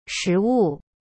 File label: "食物", Chinese; shi wu